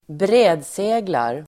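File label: "brädseglar", Swedish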